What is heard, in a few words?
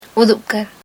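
complain about someone, Ho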